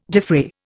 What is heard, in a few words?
"defray", Indian